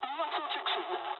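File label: damaged oil mood med, speech japanese; War Thunder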